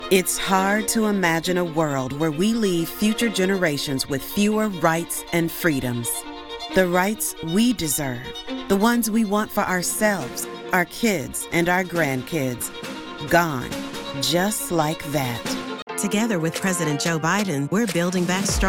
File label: english american political ads